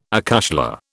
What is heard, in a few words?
"acushla", British